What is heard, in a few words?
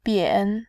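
"bian", Mandarin Chinese, tone 3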